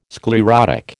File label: "sclerotic", American